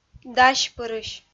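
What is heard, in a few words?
"дасьпырысь", Komi, female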